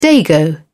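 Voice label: "dago", British English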